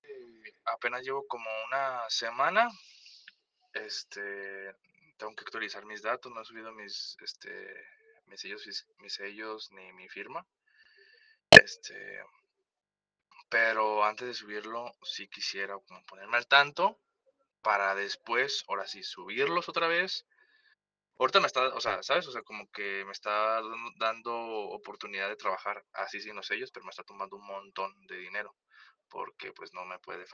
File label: whatsapp ptt